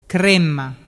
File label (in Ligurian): "cremma", dialettu